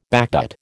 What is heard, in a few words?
"backdate", American